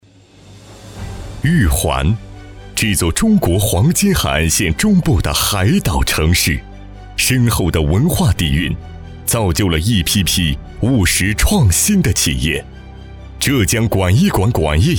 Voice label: 男 宣传片 企业; 玉环

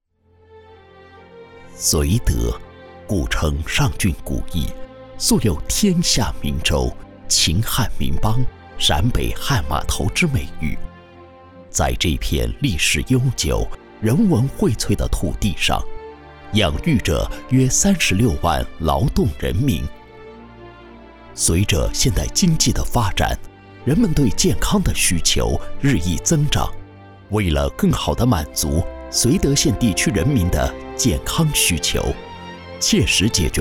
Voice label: 男 医院舒缓温暖

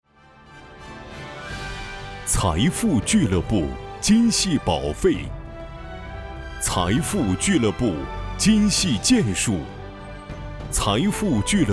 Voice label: 男 颁奖 晚会

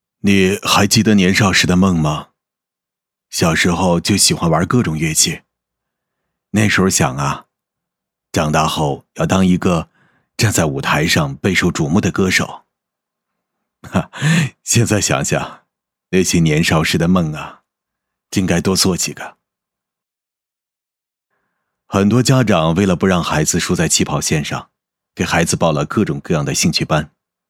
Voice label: 男-独白; 爸爸给孩子的信

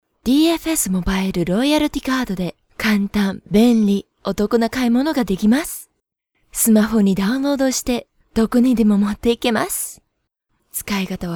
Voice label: 日语女-叙述

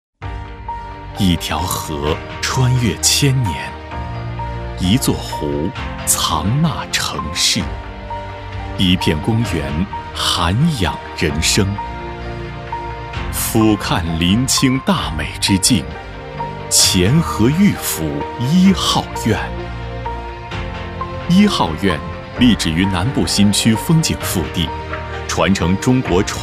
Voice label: 男 宣传片 大气深情; 壹号院

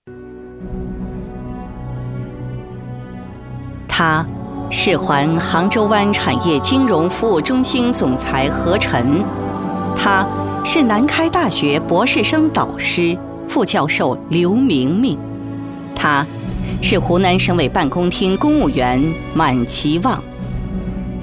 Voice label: 女 宣传片 大气; 人物农大

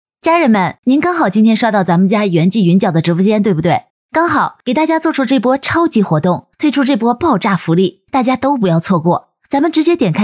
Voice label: 女 广告 直播间

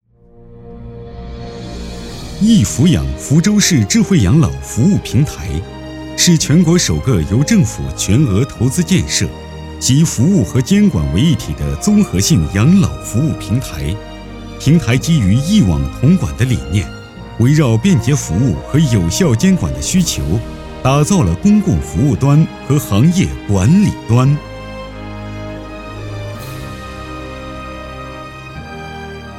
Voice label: 男 专题 养老类专题

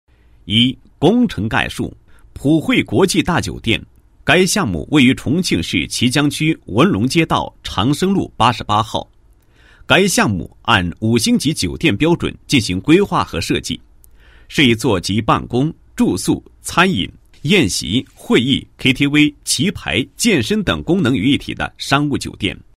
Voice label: 男 工程介绍; 某国际大酒店申报装饰工程奖汇报资料

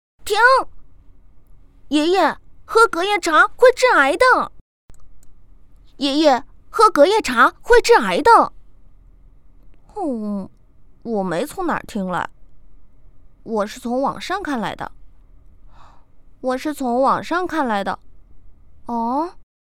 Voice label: 短剧配音; 隔夜茶真的致癌吗

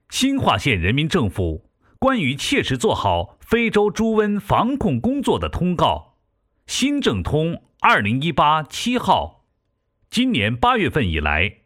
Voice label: 男 防疫专题 非洲猪瘟防控工作的通告

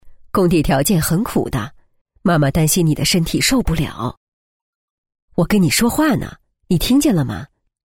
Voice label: 女 角色 老太太 性格爽朗

